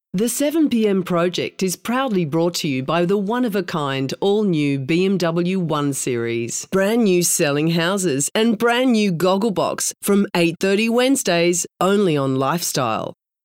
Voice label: TV promos

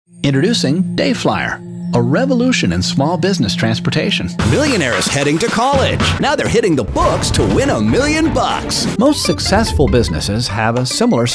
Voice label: COMBO VO

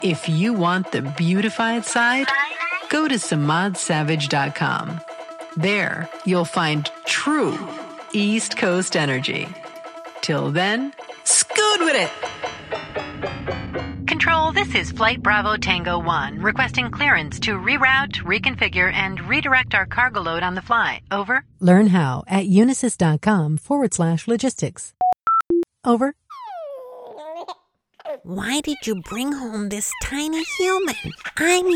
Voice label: English

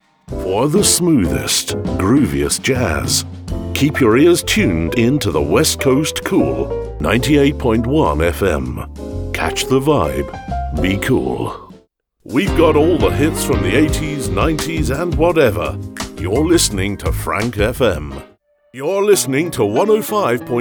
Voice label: radio imaging

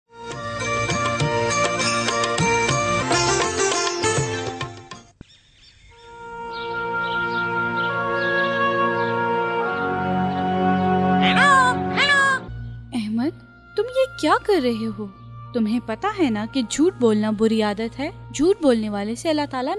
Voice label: character sample

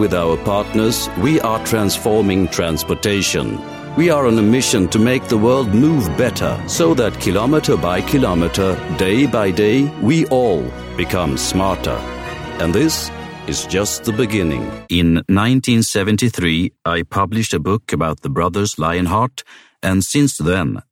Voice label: Swedish VO talent Narrative in English

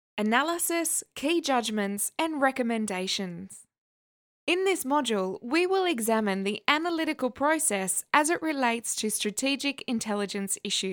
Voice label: Elearning Narration

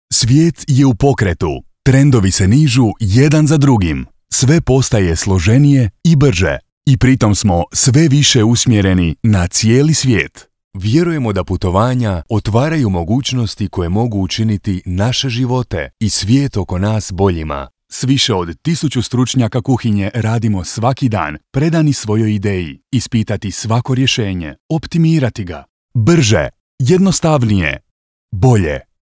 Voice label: Croatian Narration Slow

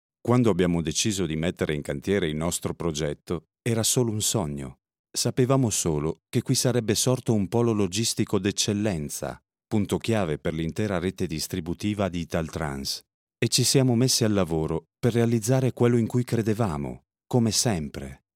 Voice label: CORPORATE VIDEO; ITALTRANS

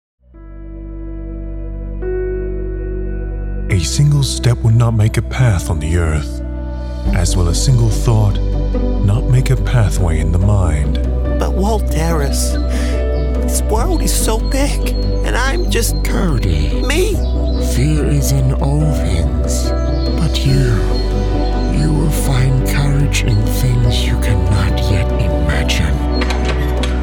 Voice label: Fantasy Character Reel

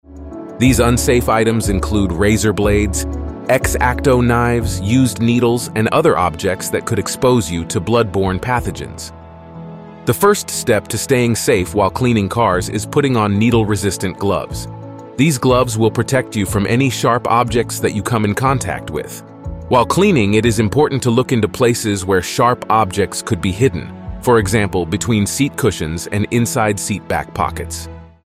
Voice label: Elearning English

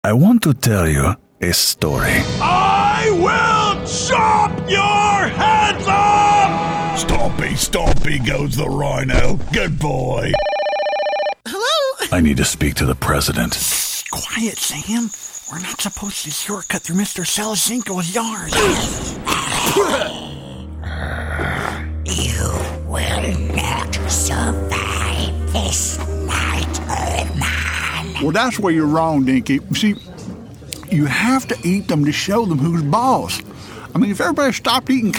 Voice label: Animation

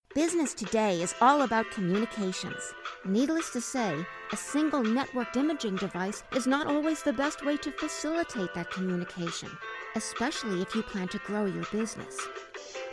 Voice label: corporate mix

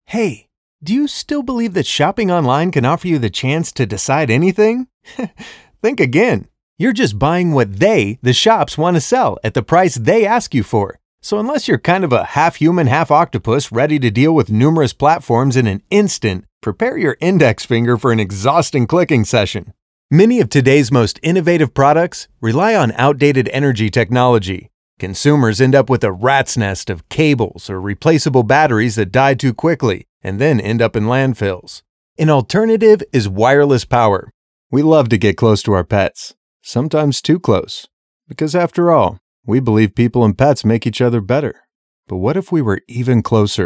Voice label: Explainer Video Voice Only

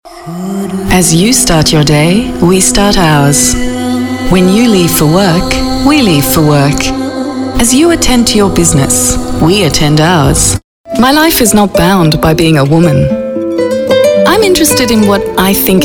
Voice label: TV Spots

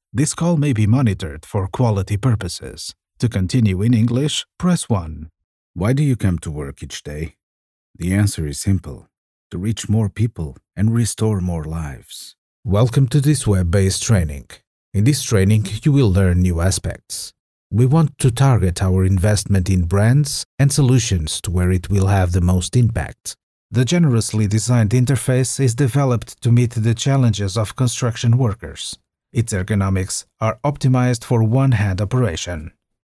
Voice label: ENG

